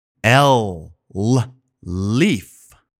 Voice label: L leaf, english pronunciation; Island; phonics